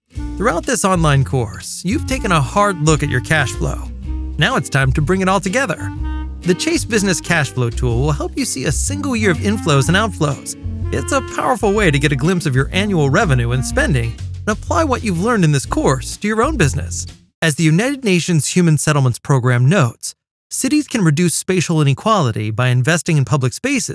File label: Corporate Elearning